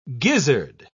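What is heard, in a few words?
"gizzard", English, British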